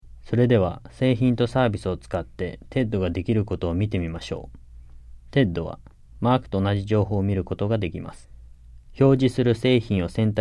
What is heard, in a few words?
JAPANESE; delltranslations